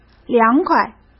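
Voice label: "凉快" Mandarin Chinese; hsk; chinese-flashcards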